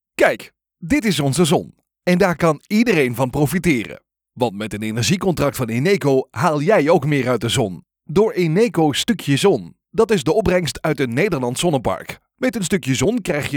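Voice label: Explainer Videos Dutch, male; Dutch voiceover; Nederlandse stemacteur; Eneco Stukje Zon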